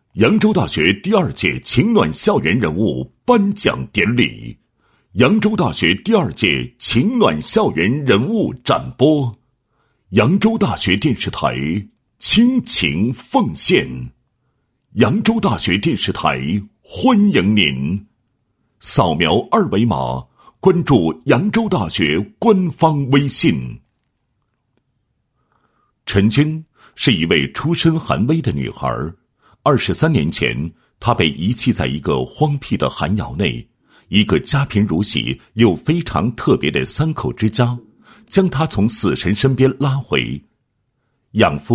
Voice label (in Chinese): 男 人物 “青春之花”在困境中怒放